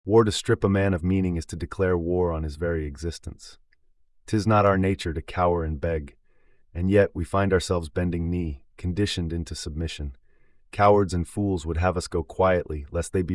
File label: narration